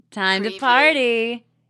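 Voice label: Woman Says Time Party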